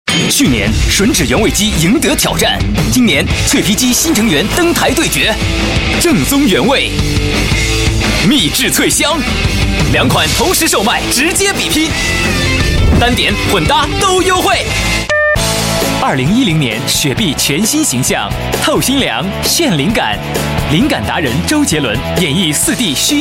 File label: chinese voice over male commercial